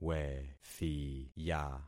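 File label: "wethiya", concatenated syllables; Affixing experiment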